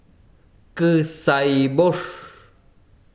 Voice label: besiro nube, chiquitano